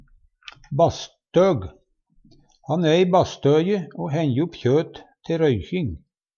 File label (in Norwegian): "basstøg", Numedalsmål; Substantiv hokjønn